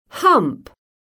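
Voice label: "hump", English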